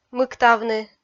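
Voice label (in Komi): "мыктавны", Komi, female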